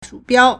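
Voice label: "shǔbiāo", Chinese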